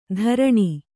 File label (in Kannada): "ಧರಣಿ", Kannada